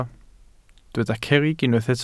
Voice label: "cerrig", Welsh